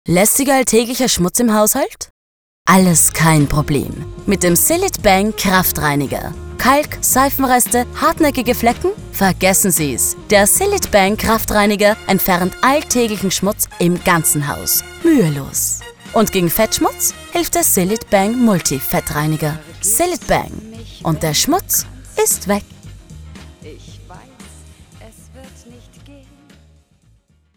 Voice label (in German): Werbung